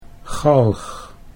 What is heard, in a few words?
"galg", Dutch